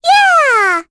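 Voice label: Vox Happy, voices heroes English; Luna; kingsraid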